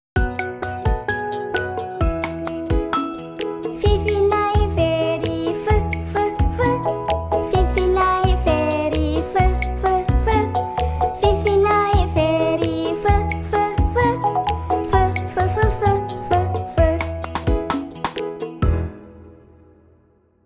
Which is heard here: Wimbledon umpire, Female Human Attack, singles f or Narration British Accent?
singles f